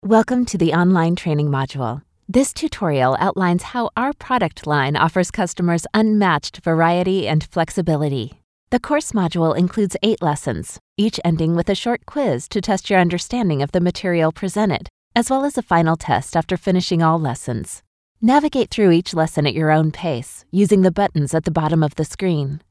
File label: Human English Voiceover